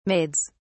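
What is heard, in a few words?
"maids", British English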